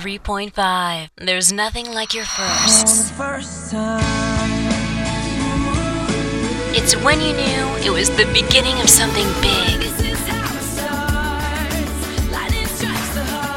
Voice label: SOFT AC HOT AC RADIO IMAGING